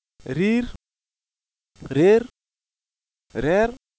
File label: reer contrast, Wolof